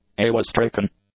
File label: "awestricken", English; adjectives